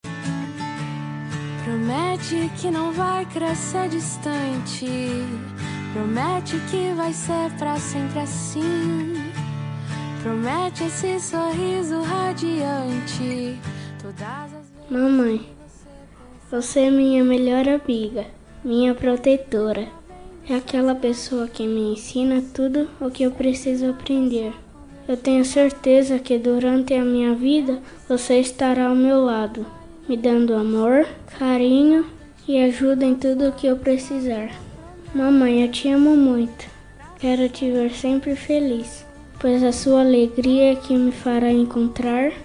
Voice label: dm voz infantil mae masculina; dia das maes para minha mae voz masculina infantil

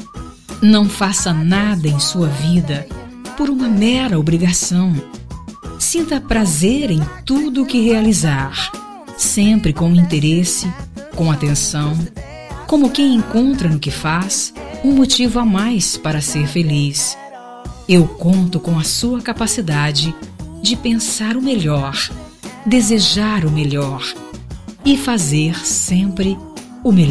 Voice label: IRMÃO FEM, Telemensagem de Aniversário de Irmão – Voz Feminina

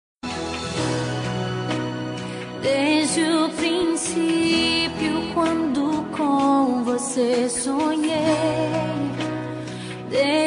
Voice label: rom fem gospel, Telemensagem Romântica Gospel – Voz Feminina

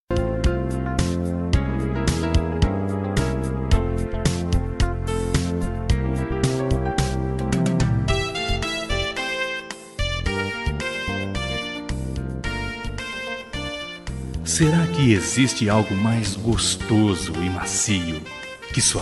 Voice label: erotica masc, Voz Masculina; Telemensagem Erótica

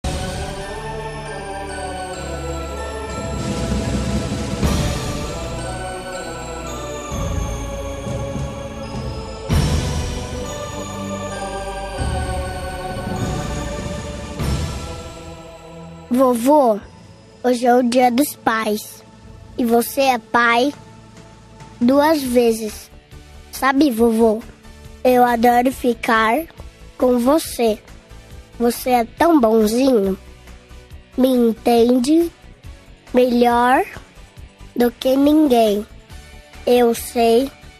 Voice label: masc infantil avo, voz infantil, voz masculina; dia dos pais para avo

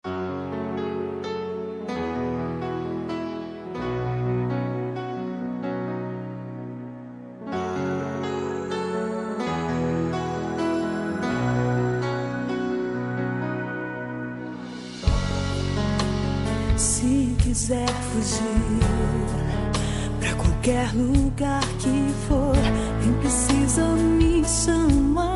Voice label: natal fem, natal romantico voz feminina

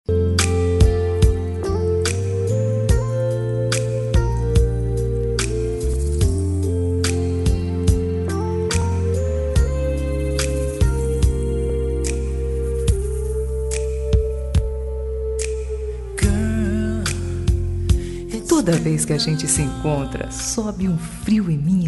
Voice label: romantica paquera, Telemensagem de Paquera – Voz Feminina

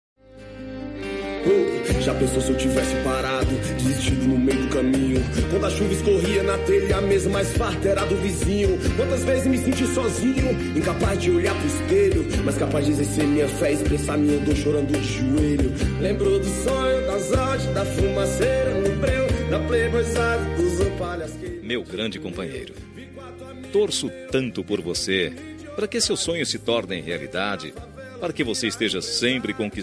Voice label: aniver irmao masc, Telemensagem de Aniversário de Irmão – Voz Masculina

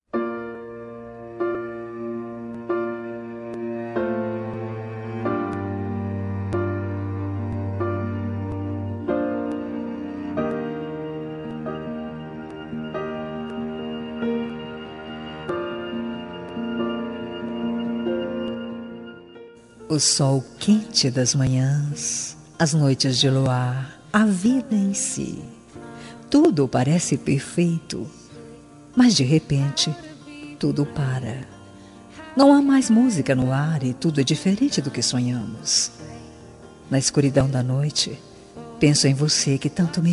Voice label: dia dos namorados distante, voz feminina; dia dos namorados para namorado distante

